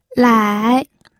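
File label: "lai", third tone, pinyin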